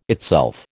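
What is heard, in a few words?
"itself", American English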